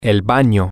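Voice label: the Bathroom, spanish, male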